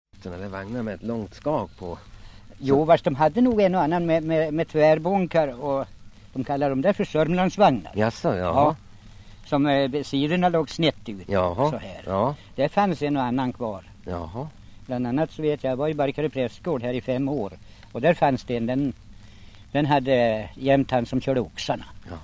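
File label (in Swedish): Västerås-Barkarö Västmanland, dialekter; Folkminnen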